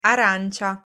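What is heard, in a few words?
"arancia", Italian pronunciation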